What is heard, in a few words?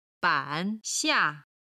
"ban3xia4", Chinese